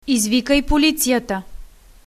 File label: police, bulgarian; Извикай полицията!; Izvikaj policijata!